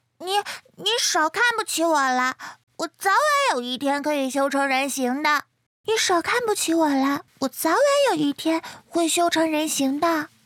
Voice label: 女 角色 女童声

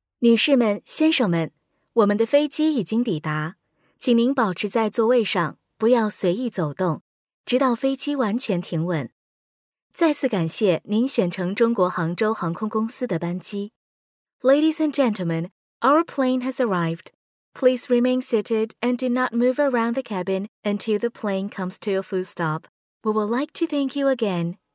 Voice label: 女 中英语 温馨广播

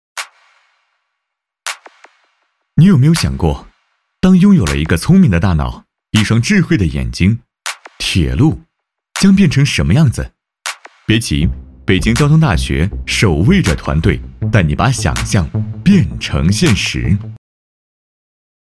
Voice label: 男, 科技感-质感-广告 无人机; 无人机文案

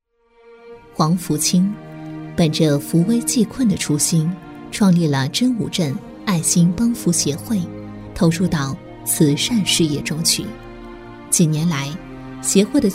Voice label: 女 专题片 人物

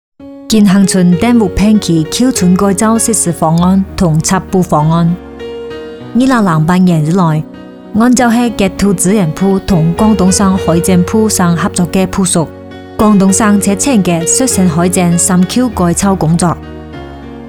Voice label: 女 客家话 党政

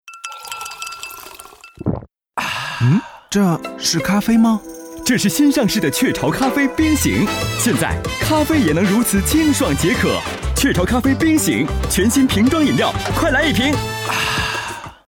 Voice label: 男 广告 年轻声音; 雀巢咖啡

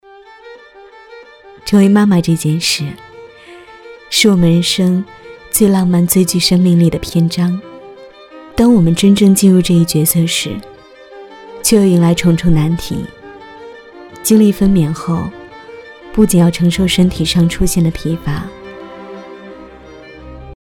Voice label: 女 素人

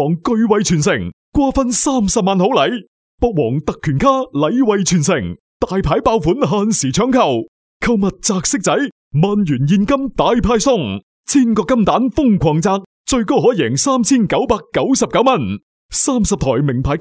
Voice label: 男 粤语 家居; 博皇家居

